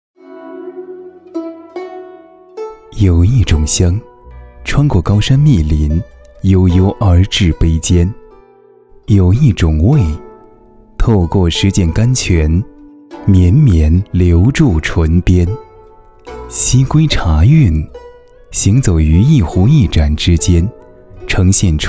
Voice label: 男 专题 纪录片

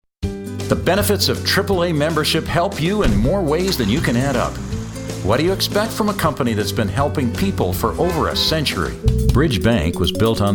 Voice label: 外籍英男, 商业广告

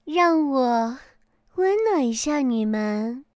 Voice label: 女 角色模仿; 九尾妖狐

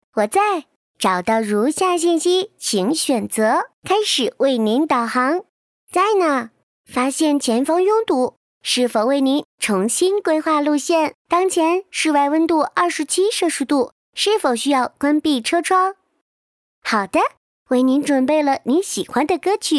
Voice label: 女 AI形象; 吉利汽车AI形象小g

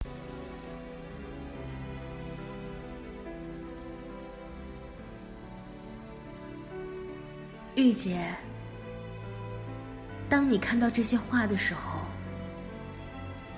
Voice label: 中文, 影视独白

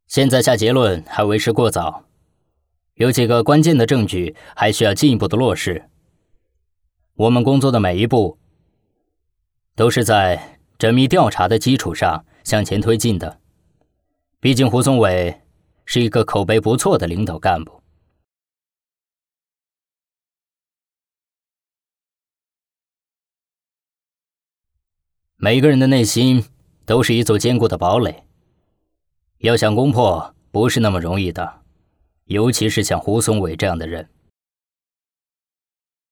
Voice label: 法制栏目剧 角色配音 短剧配音

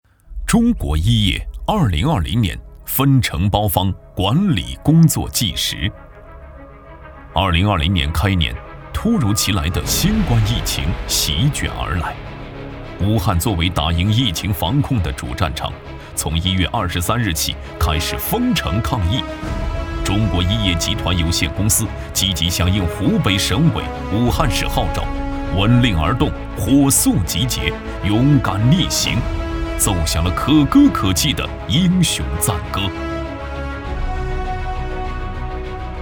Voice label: 男 企业专题 大气沉稳

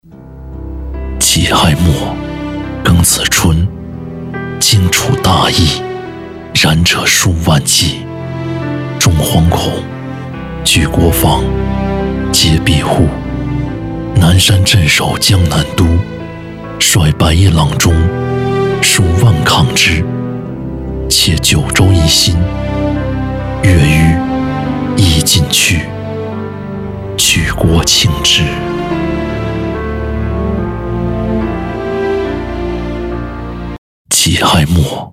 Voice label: 男, 旁白 磁性大气; 己亥末

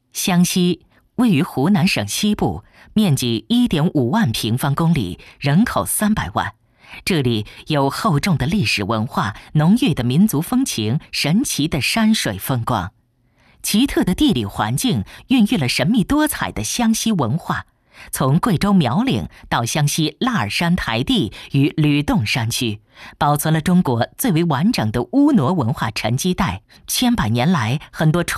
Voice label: 女 湘西

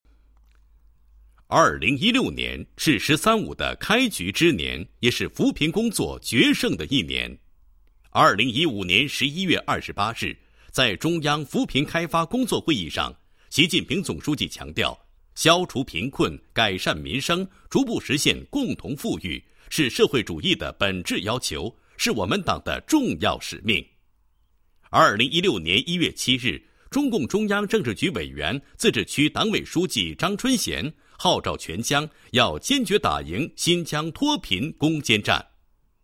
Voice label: 男, 解说专题; 吐鲁番高昌区脱贫宣传

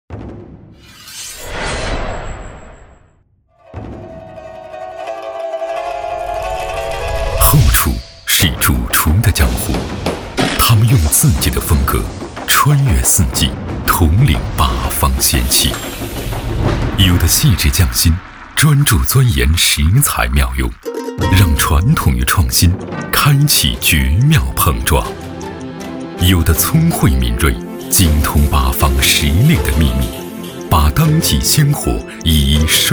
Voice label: 男 宣传 导视 片 大气高端; 希尔顿主厨季

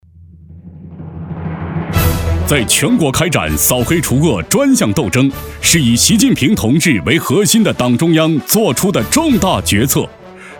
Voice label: 男 专题 大气激情; 扫黑

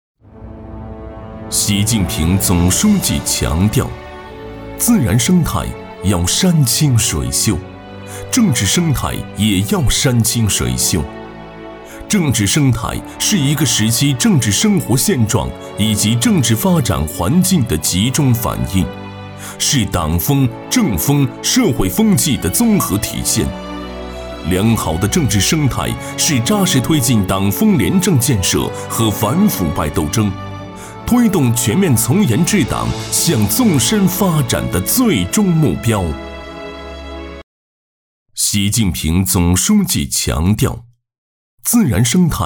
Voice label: 男; 守护政治生态的山清水秀

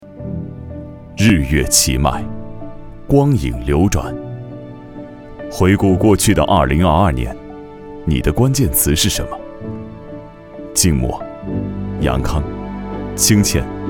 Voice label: 男,宣传片,浑厚,讲述

